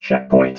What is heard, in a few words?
"checkpoint", robot vox